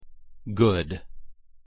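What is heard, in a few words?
pronunciation "good", English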